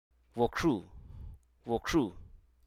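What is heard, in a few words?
Hruso Aka; chair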